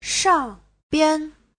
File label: "shang4bian5", Chinese; Giáo trình Hán ngữ